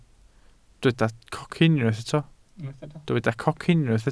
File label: "cocyn", welsh